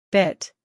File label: "bit", English